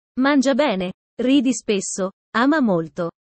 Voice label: italian sayings about life